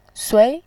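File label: "sui", Mandarin Chinese, second tone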